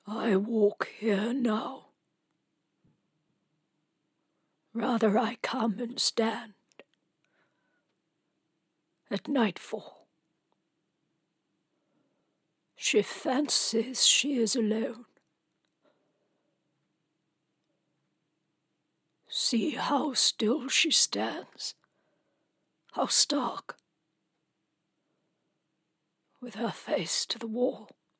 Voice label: Character